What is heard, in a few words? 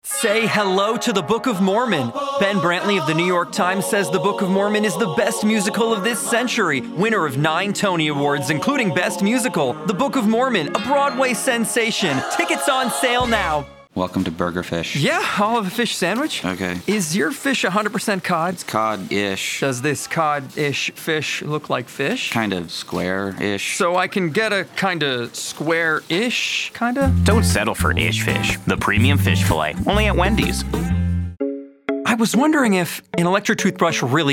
Commercial